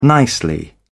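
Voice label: "nicely", British English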